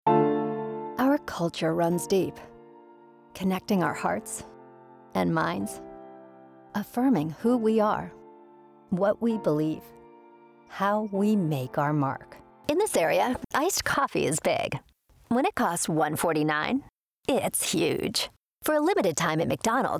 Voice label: Commercial